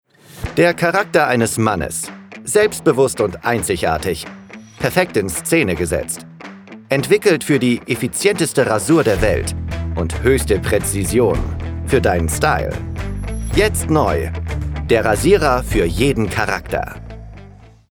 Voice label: Werbung Rasierer

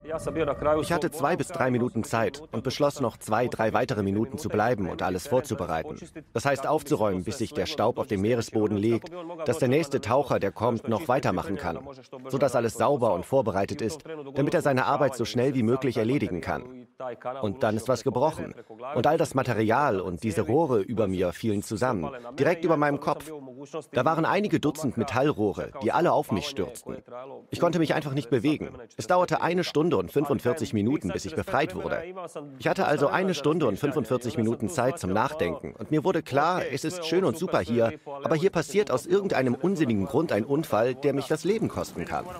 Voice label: Voiceover; ARTE; MDR

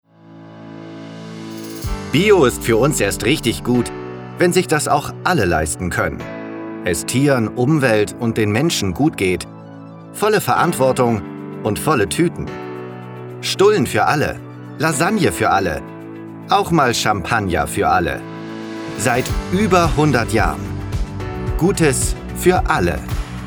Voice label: Werbung Supermarkt

